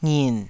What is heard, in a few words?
"ngin3", taiwanese syllables